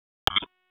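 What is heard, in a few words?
Sheriff's Office Dispatch; WSO DISP 1; wake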